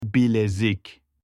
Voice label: bracelet in turkish